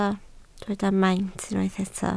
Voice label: "maint", Welsh